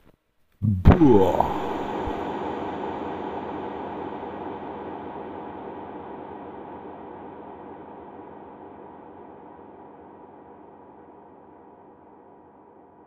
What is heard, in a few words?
boah reverb echo